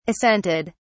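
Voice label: "assented", British English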